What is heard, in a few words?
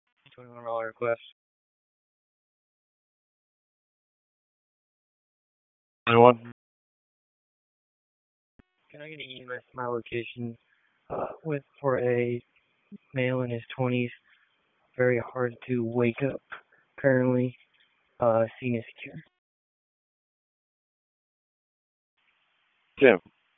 Police Northeast District Disp, p25; Raleigh Police Northeast District Disp; wake p25